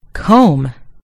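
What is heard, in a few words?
"comb", American English; gcompris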